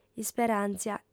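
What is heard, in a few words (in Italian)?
"isperàntzia", lingua sarda, fem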